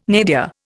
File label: "nadir", Indian